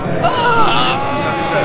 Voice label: distress, babble, sad